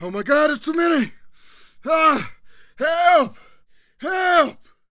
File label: Panic; zps; Humans; HumanCop